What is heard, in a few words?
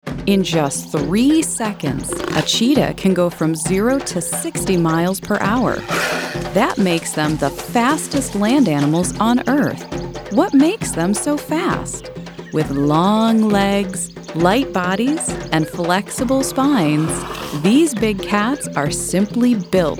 narration